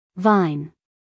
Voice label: "vine", us female